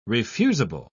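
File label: "refusable", British English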